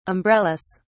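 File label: "umbrellas", British English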